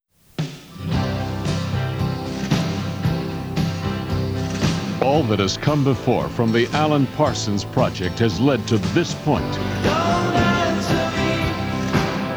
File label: Radio Advert